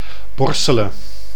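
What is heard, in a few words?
"Borsele", Dutch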